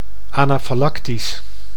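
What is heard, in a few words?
"anafalactisch", Dutch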